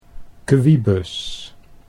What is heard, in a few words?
"kwibus", Dutch